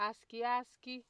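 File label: "askiaski", Nigerian Pidgin, female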